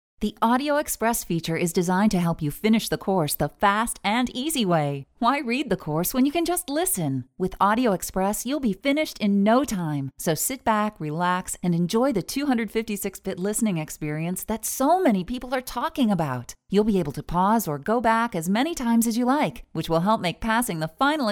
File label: promo; bdi english; audio express